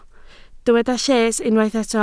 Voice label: "lles", Welsh